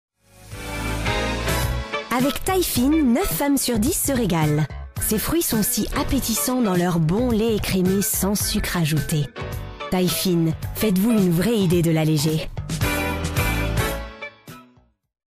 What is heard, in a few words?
advert (French); Taillefine